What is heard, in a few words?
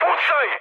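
Serbian attack position mood high; War Thunder; speech srb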